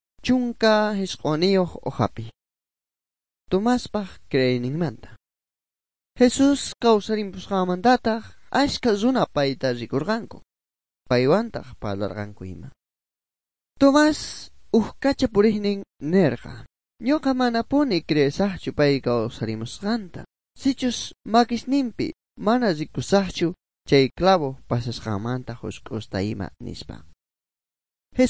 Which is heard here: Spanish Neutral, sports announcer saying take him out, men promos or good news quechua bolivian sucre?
good news quechua bolivian sucre